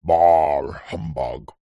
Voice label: human male old grumpy says bah humbug